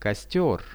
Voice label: "kostior", Russian; костёр; nature; priroda; RUSSIAN VISUAL DICTIONARY